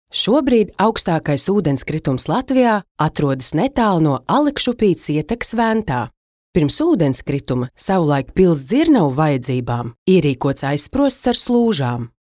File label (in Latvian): Infografika LV